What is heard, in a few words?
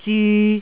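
"zyu3", Cantonese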